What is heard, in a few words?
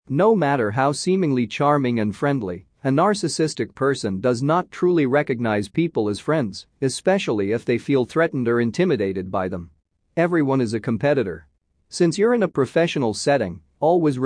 Narcissism Male Audio